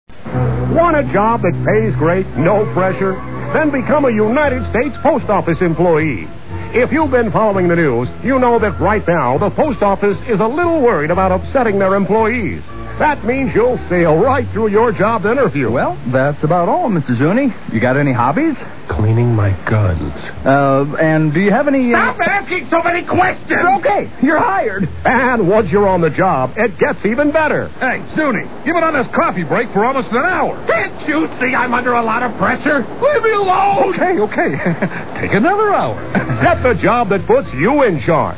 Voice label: Commercials postal; Postal Employment; Freekin Weird Audio